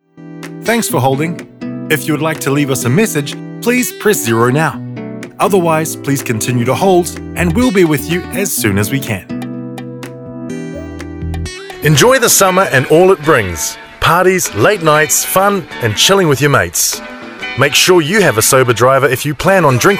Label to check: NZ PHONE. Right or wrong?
Right